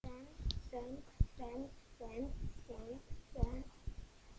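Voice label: "cent", spoken word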